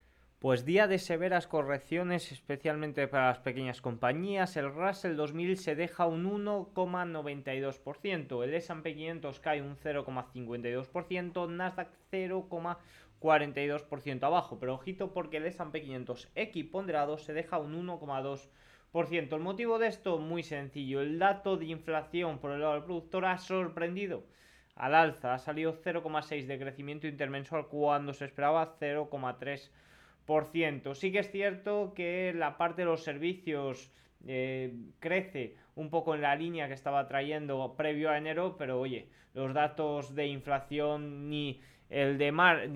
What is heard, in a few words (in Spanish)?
radio; las pequenas companias se debilitan tras el fuerte dato de inflacion